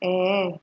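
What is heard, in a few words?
"ehen", Nigerian Pidgin, female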